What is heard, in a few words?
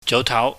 "joutau", Cantonese